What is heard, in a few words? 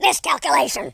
"oops", War General; speech